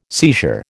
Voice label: "seizure", American

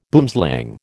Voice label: "boomslang", American

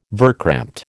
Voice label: "verkrampte", American

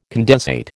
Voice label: "condensate", American

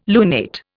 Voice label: "lunate", Indian

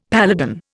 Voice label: "paladin", Indian; Dictionary

